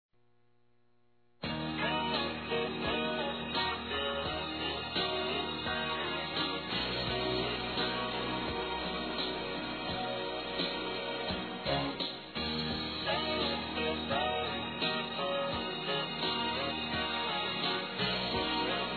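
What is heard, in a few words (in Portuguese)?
foi amor a 1ª vista, Feminino; Telemensagens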